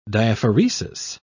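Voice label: "diaphoresis", British English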